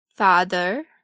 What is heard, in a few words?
"father", English